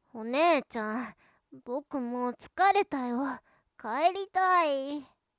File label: boy